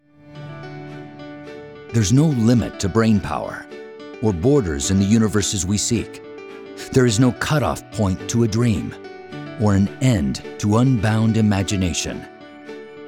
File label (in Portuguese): ingles, locucao e narracao; accenture